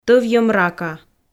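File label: "тӧвйӧм рака", Komi, female; audio dict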